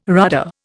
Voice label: "rudder", Indian